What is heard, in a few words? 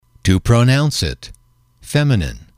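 "to pronounce it", English, male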